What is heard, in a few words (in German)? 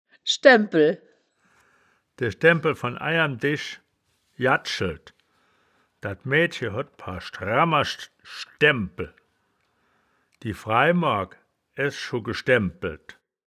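"schdaem-bpel", wittlicher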